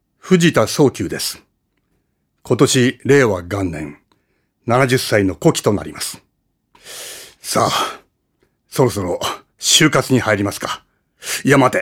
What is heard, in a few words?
freetalk, male voice